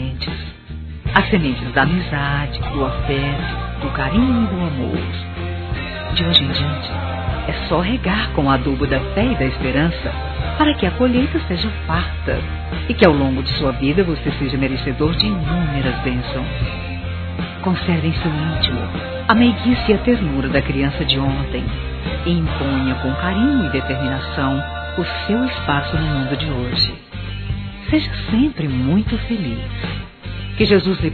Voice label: FILHO F; Aniversários; Aniversários diversos; fem

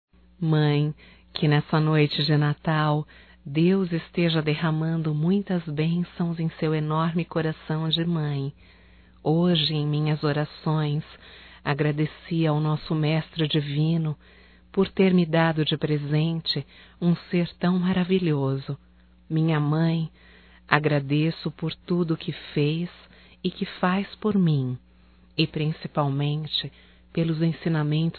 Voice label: Mãe Feminino; Natal e ano novo; Telemensagens 2017

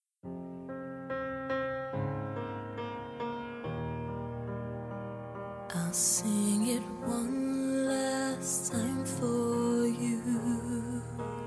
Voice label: Filha para pai distante, Telemensagens, Dia dos Pais, fem; Telemensagens 2017

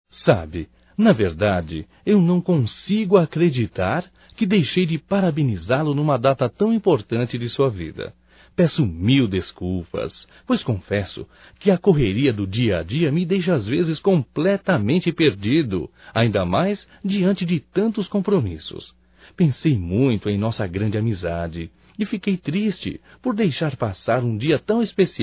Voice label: Aniversário Atrasado Amigo Masculino, Só Voz; Telemensagens; Telemensagens 2017

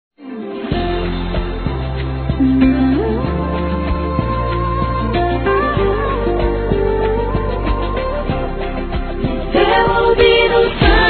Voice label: Aniversário Adolescente Masculino

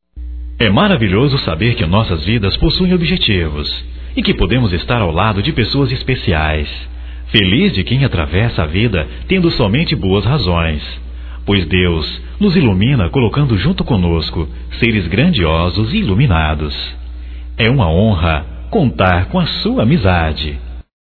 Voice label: Amizade Geral Masculino, Só Voz; Telemensagens; Telemensagens 2017

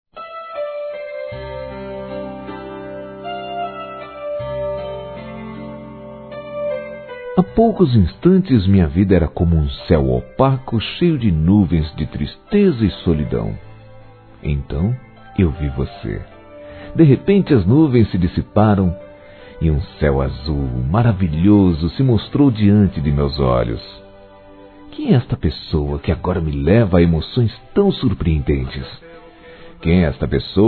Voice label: Paquera Masculino; Telemensagens 2017

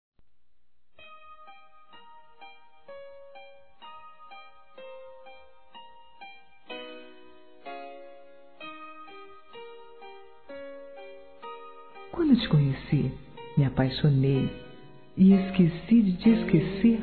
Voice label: aniv namorado romantico f; Aniversários; fem

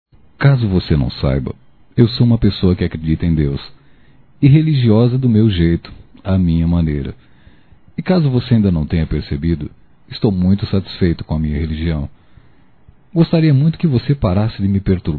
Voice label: Desista de me converter Masculino; Telemensagens 2017

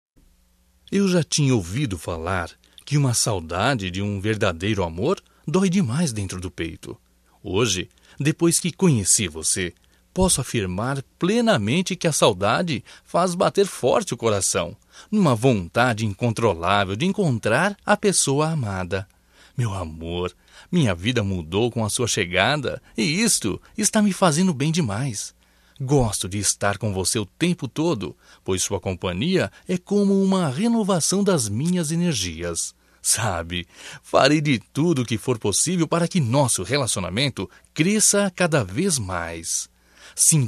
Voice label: SAUDADES, masc

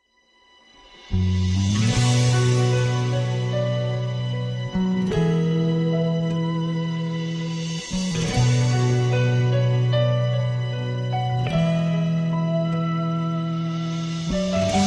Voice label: Convite Amiga Evangelica Masculino; Temas Evangelicos

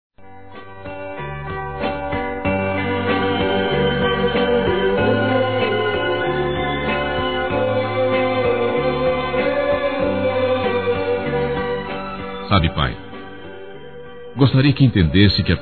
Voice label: desculpa meu pai, Desculpas, masc; Telemensagens 2017

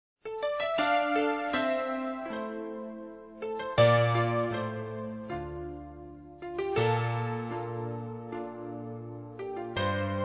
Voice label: EVANGELIZAÇÃO, Gospel, fem; Telemensagens; Telemensagens 2017

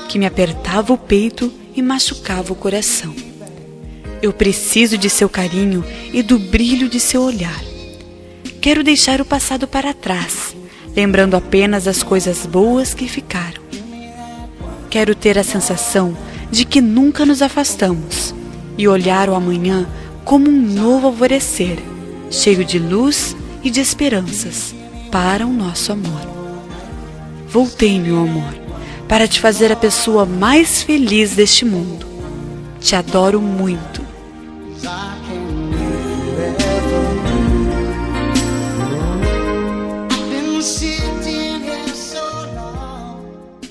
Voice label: ROM VOCE E MINHA VIDA FEM

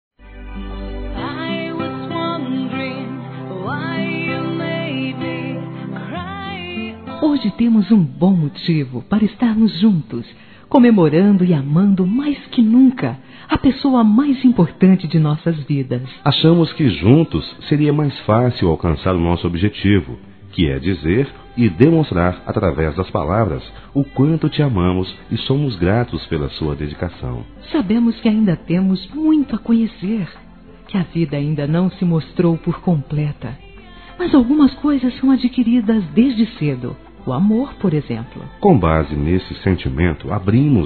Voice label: FILHOS P MÃE - DUAS VOZES; Dia das Mães; Masc; Telemensagens 2017

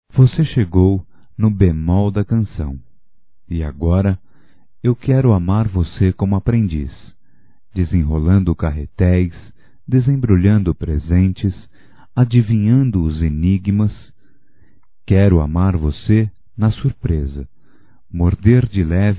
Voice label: TE MAGOEI - M; Românticas

